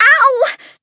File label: Voice damaged; yuna